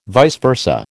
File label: "vice versa", American